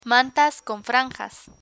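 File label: "mantas con franjas", Spanish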